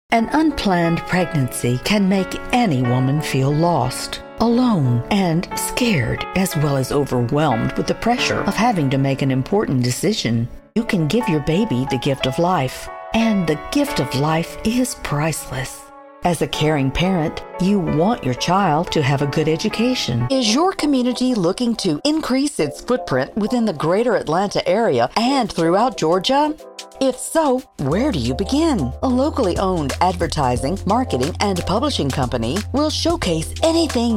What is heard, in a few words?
FEMALE